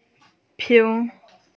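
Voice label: "ping2", Mandarin Chinese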